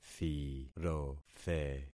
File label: "thirothe", concat syllables; Affixing experiment